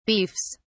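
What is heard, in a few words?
"beefs", English, British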